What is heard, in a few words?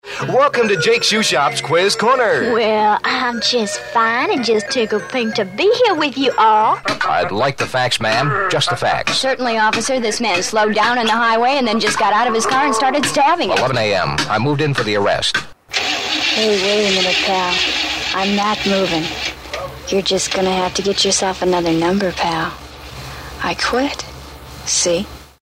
character voices; commercial charactors